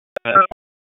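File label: Fire Department HQ Dispatch; RFD HQ DISP; wake; p25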